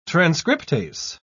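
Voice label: "transcriptase", British English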